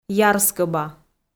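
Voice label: "ярскӧба", Komi, female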